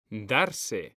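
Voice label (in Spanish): "darse", Spanish; mekanta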